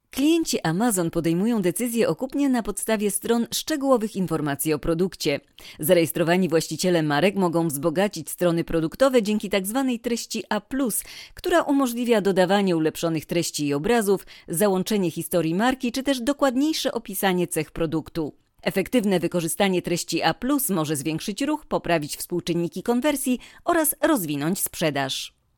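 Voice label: Polish, Commercial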